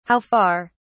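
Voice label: "how far", British English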